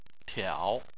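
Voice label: "tiao", Chinese, third tone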